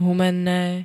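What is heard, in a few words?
"Humenné", Slovak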